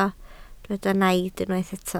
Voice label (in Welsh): "naid", Welsh